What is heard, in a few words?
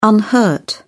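"unhurt", British English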